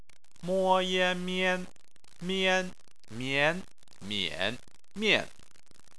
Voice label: "mian", chinese